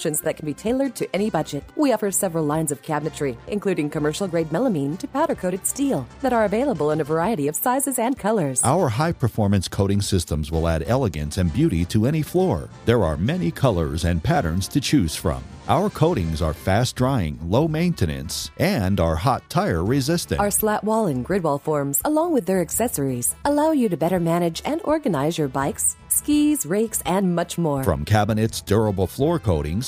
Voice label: voicemail